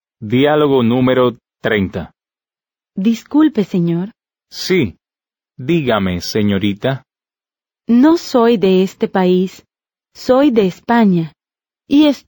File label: Dialogue, Spanish; Learn Conversational Spanish Now